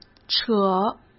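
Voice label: "扯", Mandarin Chinese; chinese-flashcards; hsk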